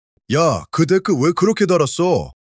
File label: anger